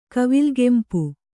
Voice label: "ಕವಿಲ್ಗೆಂಪು", kannada

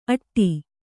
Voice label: "ಅಟ್ಟಿ", Kannada; aṭṭi; cooked food.

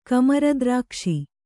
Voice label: "ಕಮರದ್ರಾಕ್ಷಿ", kannada

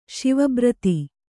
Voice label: "ಸಾವಭೃತ", Kannada

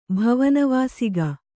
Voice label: "ಭವನವಾಸಿಕ", Kannada

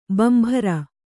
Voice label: "ಬಾಂಬರು", kannada

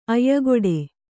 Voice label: "ಆಯಕಟ್ಟು", Kannada

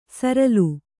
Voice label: "ಸರಲ್", Kannada

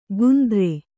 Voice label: "ಗುಂದ್ರೆ", Kannada

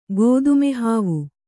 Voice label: "ಗೋದುಮೆಹಾವು", kannada

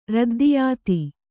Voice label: "ರದ್ದಿಯಾತಿ", Kannada

